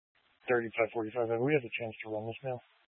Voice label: Police Dispatch; Police 1 Dispatch

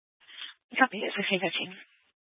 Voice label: Police Dispatch

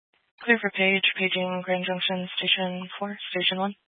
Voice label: Fire Dispatch

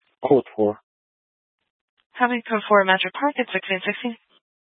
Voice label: Police Dispatch, scanner

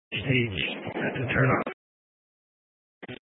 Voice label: Fire Department Dispatch, scanner

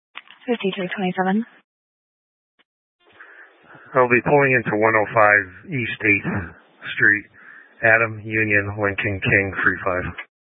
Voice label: Mesa County Sheriff Dispatch

